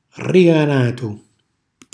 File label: "rriganatu", Calabrese; cucinacalabrese; glossario